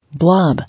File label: "Blob", English